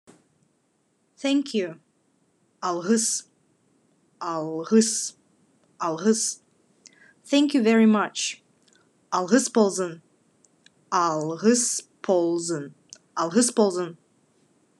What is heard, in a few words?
Khakas Thank you thank you very much